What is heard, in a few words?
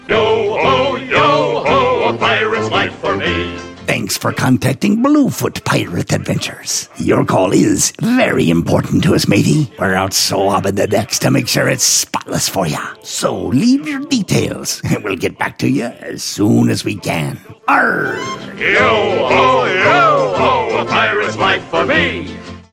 general voicemail; Bluefoot Pirate